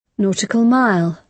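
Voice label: "nautical mile", British English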